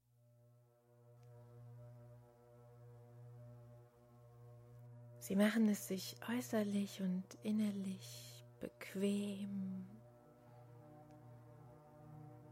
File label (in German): Kinderwunsch erfuellen mit Hypnose